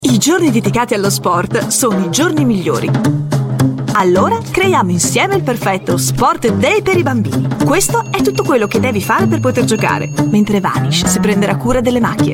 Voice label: italian voice over female commercial

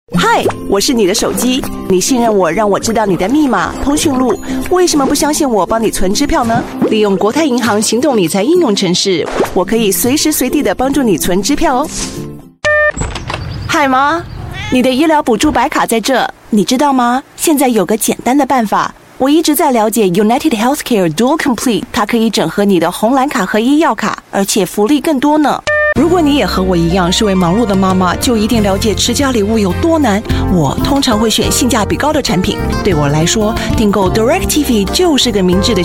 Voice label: chinese voice over female commercial

